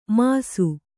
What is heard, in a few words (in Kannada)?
"ಮಾಸು", Kannada; māsu; noun